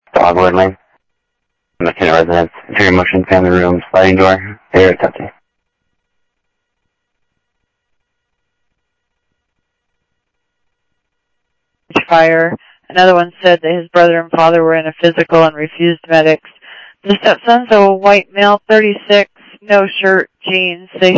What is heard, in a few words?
South Scottsdale Sheriff, scanner audio